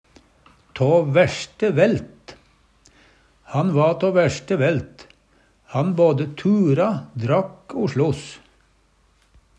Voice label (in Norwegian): tå værste velt, Numedalsmål; av verste slag; Uttrykk; Han va tå værste velt; han både tura, drakk o sloss.